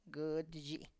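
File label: "gId zhi", Tlacochahuaya Zapotec; nostril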